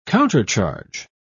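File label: "countercharge", British English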